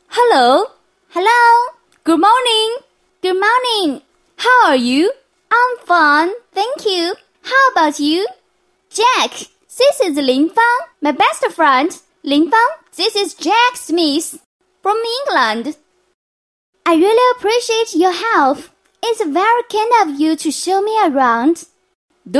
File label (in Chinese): 英女 英语 男童和女童对话